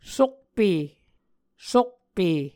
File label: "sokpe", Muscogee